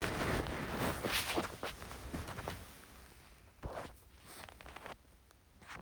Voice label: Voice message; glasgow; IRC